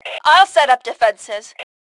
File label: Defenses, Female; T2 Female 01